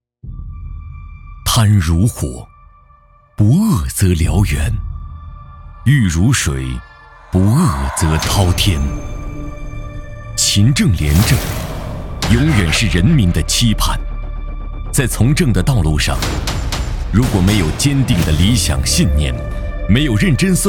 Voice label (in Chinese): 专题 政府 警示片 大气, 男